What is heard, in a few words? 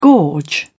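"gorge", English, British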